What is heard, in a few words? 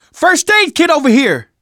spot first aid, survivor voice manager; Left 4 Dead 2; left4dead2 dlc2